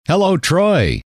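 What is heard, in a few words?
"hello troy", male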